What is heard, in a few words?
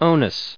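"onus", US English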